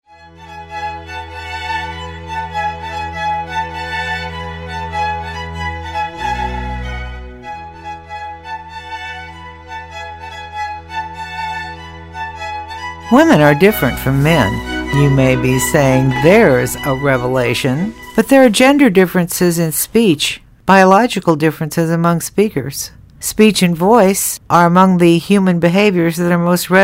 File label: vocal health male female; podcasts